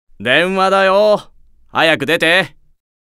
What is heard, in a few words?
Call Notification Voice; Satan